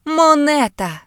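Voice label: coin, Ukrainian; gcompris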